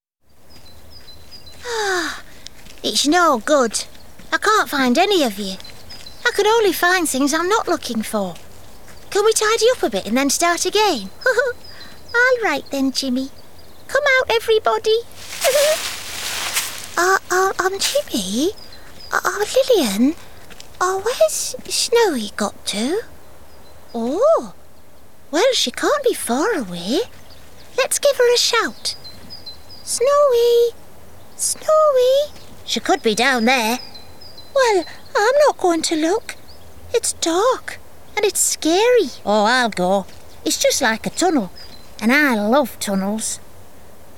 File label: animation